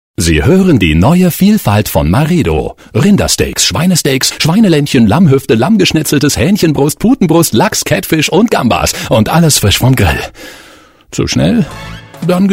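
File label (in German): werbung, deutsch männer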